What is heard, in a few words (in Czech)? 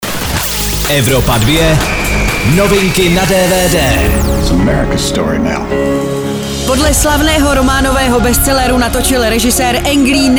dvd news; evropa2; ulicka slavy